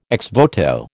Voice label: "ex voto", American